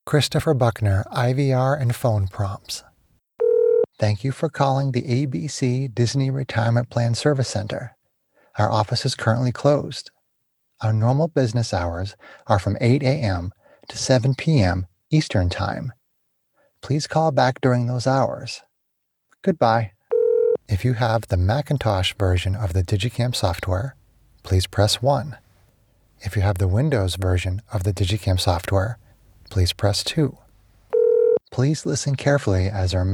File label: IVR